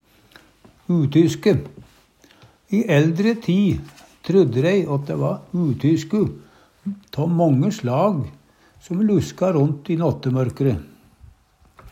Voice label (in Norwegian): utyske, Numedalsmål